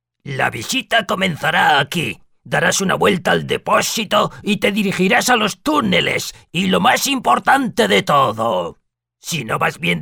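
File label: Spanish Spain male voice over, character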